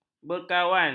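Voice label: "berkawan", Malay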